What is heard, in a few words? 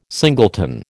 "singleton", American